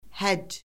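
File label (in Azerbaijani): "həcc", Azerbaijani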